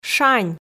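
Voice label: "шань", Komi, female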